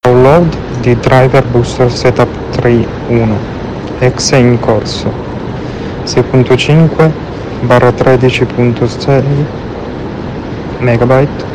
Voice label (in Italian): suono della voce interiore